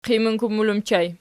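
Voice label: "qiminkummul" ergative plural, Archi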